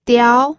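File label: "diao", Mandarin Chinese, second tone; pinyin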